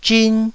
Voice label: "zhin1", taiwanese

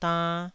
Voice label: "dann2", Taiwanese syllable

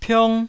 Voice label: "piong1", taiwanese

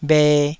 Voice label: "bhe2", taiwanese

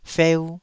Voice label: "feu2", Taiwanese

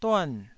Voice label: "duan4", taiwanese syllable

